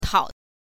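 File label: "tao", Mandarin Chinese, neutral tone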